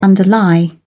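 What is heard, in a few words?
"underlie", English, British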